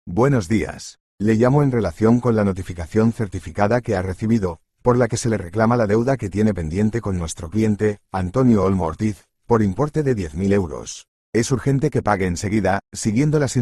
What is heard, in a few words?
audio call